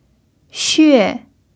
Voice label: "xue4", Chinese pinyin